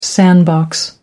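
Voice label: "sandbox", British English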